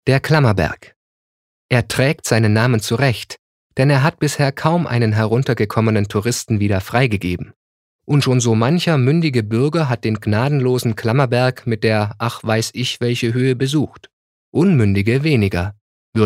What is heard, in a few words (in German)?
Kommentar, Neutral